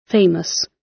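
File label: "famous", British English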